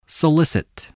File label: "solicit", English voice